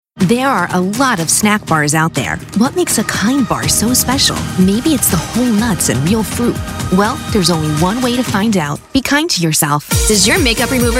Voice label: Commercial